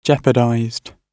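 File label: "jeopardized", British English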